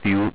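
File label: "fuel", english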